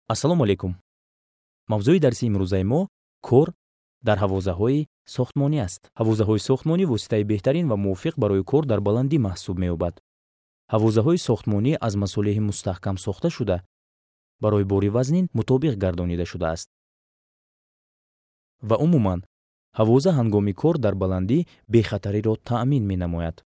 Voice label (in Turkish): Tacikce Erkek, seslendirme; erkek sesler